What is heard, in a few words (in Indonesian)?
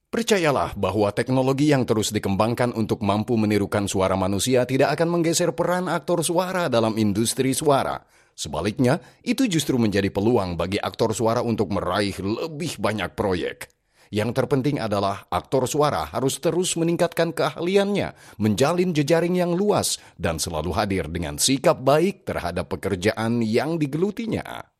Optimis Indonesia Pengisi Suara Pria